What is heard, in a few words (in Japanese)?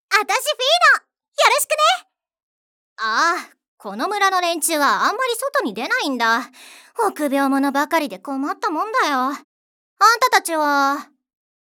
元気な村人